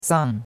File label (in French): "sang4", chinois; Cours-de-Chinois